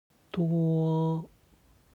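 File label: "duo", Chinese; 多; 多い; HSK Level One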